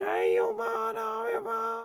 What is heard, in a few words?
Pig Chef, Voices; UOP1 Project